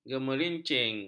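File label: "gemerincing", Malay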